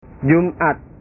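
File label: "jumat", Indonesian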